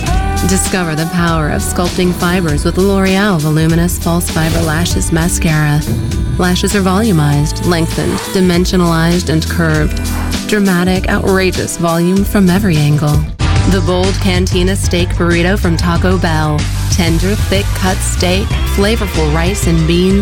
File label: Sexy and Provocative, Commercial